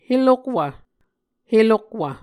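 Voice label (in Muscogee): "helokwv", Muscogee word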